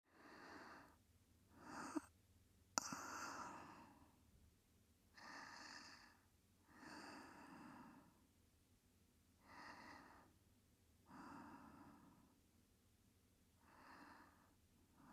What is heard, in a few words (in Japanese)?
寝言左; 撫猫さんと添い寝（左選択）; 撫猫さんと交際